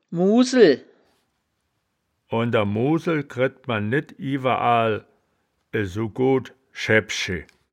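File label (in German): "muusel", wittlicher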